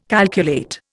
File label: "calculate", Indian